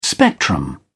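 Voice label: "spectrum", English, British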